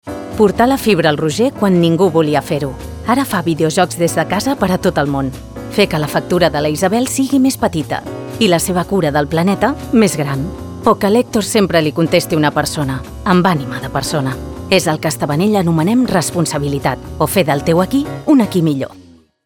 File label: commercial, spanish, female